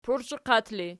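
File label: "pursheqat" ergative, Archi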